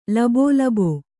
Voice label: "ಲಬೋಲಬೋ", Kannada; labō labō; noun

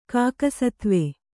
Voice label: "ಕಾಕಸತ್ವೆ", Kannada

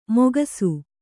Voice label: "ಮೊಗಸು", Kannada

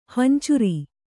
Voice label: "ಹಿಂಚಾರಿ", Kannada

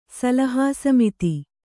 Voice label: "ಸಲಹಾಸಮಿತಿ", kannada

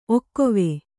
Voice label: "ಒಕ್ಕೊವೆ", Kannada

